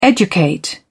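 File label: "educate", British English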